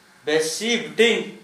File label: "besi bʈiŋ", Gta, Dialect: Hill; terrible